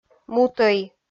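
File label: "мутой", Komi, female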